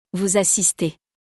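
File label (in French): "vous assistez", French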